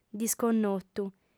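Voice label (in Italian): "disconnotu", lingua sarda, female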